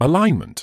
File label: "alignment", medical english uk; əˈlaɪn.mənt; uh-LIGN-muhnt